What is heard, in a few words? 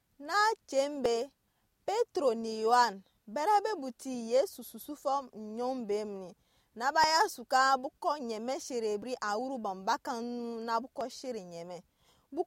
Anufo; Pierre et Jean guérissent un boiteux; Peter and John Heal a Crippled Man